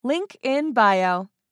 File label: Link in bio social media female